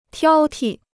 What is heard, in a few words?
"挑剔", Chinese